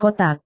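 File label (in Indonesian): "kotak", Indonesian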